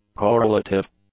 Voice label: "correlative", English; adjectives